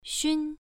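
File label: "xun", Mandarin Chinese, first tone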